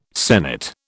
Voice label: "senate", British